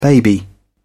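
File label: "baby", British English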